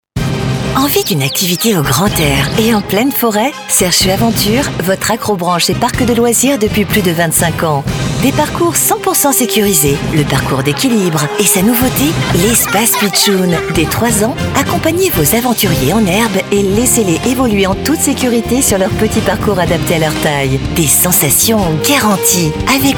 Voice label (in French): Promo